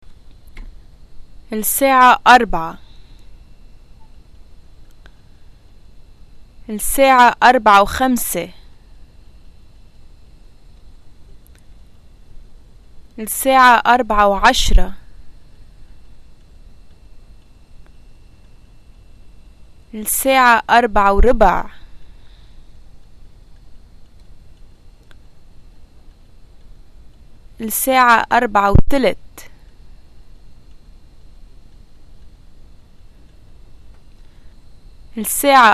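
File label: time increments, Lebanese Arabic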